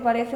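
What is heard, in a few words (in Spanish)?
"parece", Spanish, Andalusia, Sevilla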